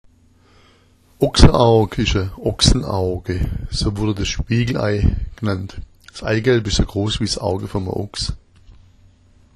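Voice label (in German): ochsaaug, schwäbisch; Oxa-Aug; Oxa-Aug ist ein Ochsenauge. So wurde das Spiegelei genannt.; Das Eigelb ist so groß wie das Auge eines Ochsen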